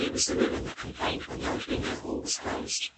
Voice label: Vocoded Speech